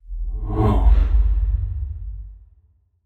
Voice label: thinking short; aragami

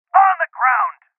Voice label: Voices Order To Surrender Male

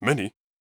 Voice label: surprised

